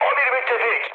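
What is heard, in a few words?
Turkish player friendly fire, mood high, speech; War Thunder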